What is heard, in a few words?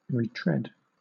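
"retread", British English; pronunciations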